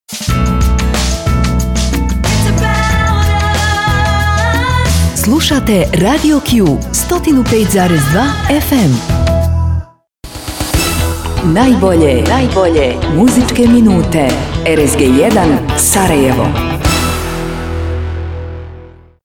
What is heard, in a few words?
RADIO Jingl; BOS